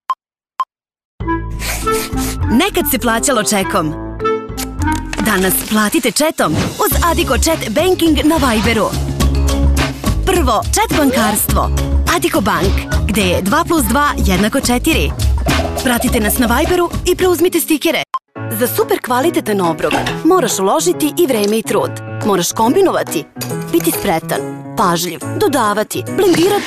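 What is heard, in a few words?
Serbian, female, commercial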